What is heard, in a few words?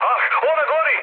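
Serbian on fire mood med, speech; War Thunder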